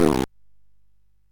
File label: MOS6581R3, Electronic Speech Systems